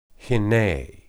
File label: "hinneh", Hebrew